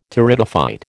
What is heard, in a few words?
"Pteridophyte", American